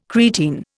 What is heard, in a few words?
"creatine", Indian